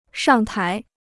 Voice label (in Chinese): "上台", Chinese